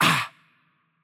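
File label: Hurt Light, Greek; el GR; VO; bloom minigame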